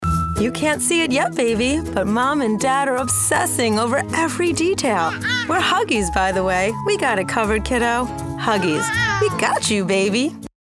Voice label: commercial; huggies